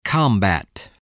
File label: "combat", voice